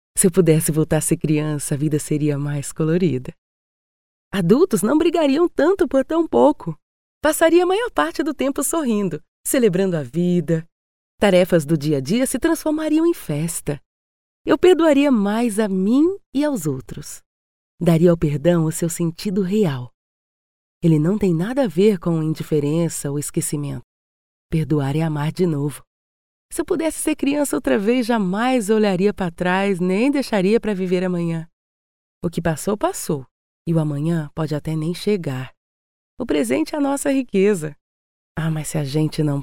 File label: portuguese brazilian narration